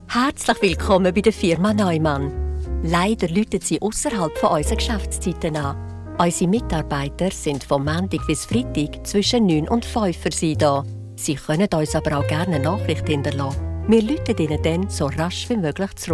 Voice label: german switzerland ivr; professional Swiss voice actor